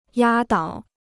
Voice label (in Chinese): "压倒", Chinese